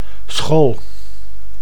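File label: "school", Dutch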